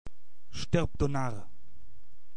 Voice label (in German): Normal - Narr